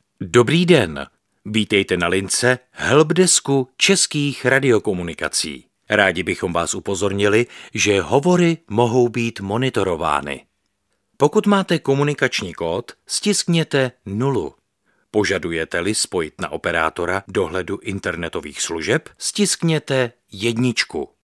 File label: male Czech IVR